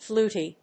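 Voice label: "fluty", English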